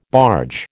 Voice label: "barge", American